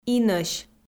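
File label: "инӧсь", Komi, female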